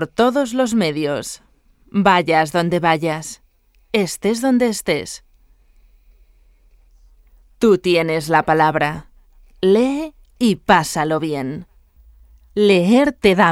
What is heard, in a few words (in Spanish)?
Lectura